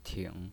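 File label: "ting", pinyin voice, third tone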